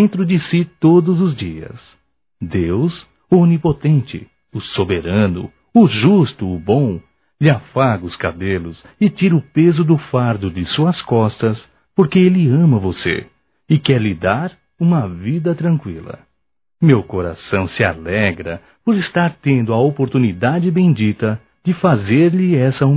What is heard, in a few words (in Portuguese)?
Aniversário Evangélico Masculino; Aniversarios; Telemensagens 2017